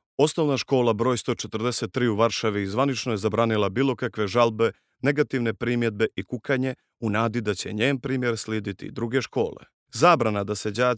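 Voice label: Montenegrin, male; montenegro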